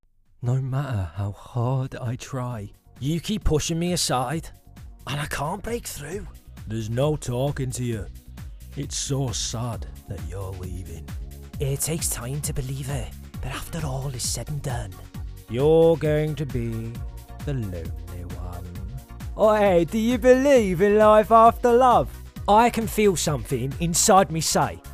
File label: Accent Reel